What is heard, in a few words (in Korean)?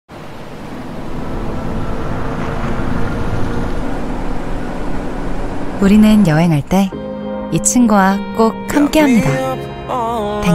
차분하게 기분좋은; 아이오닉